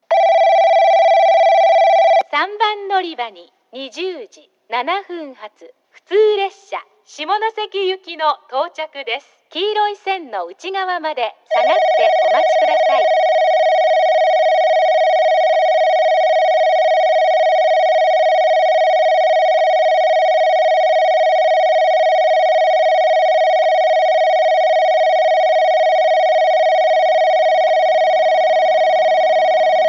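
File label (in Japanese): 3番のりば接近放送, 女声; 行橋; 普通 下関行き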